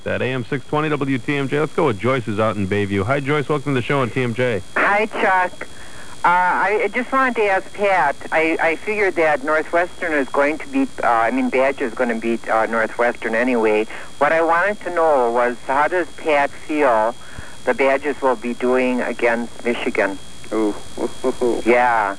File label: Badgers, English vowel; English vowels